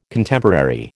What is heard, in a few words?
"Contemporary", American